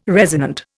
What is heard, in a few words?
"resonant", Indian